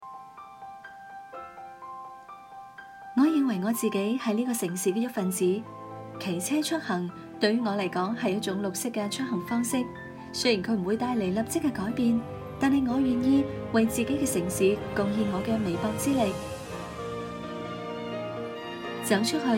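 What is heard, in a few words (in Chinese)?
女粤16 港式粤语广式粤语 娓娓道来 积极向上 时尚活力 亲切甜美; Commercials-Go blue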